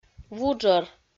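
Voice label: "вуджӧр", Komi, female; audio dict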